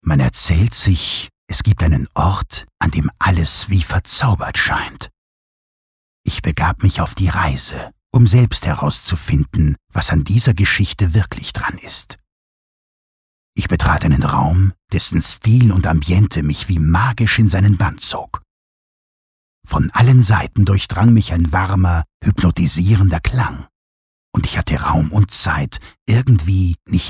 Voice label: Schauspiel Poetisch

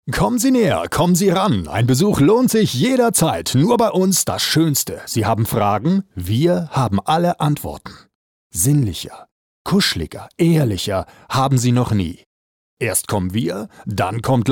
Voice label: Schauspiel, Energisch

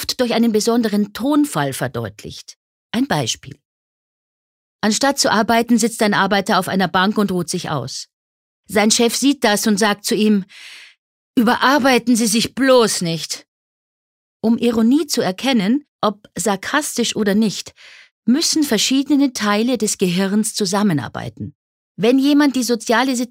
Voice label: Sarkasmus Neutral

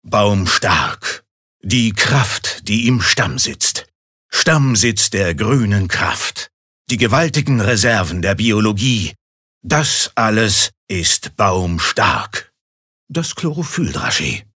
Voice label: Werbung Kraftvoll

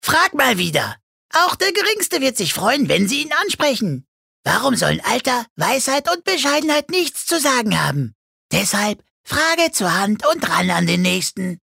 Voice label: Charge, Comic

